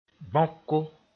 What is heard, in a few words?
"bãoko", Mooré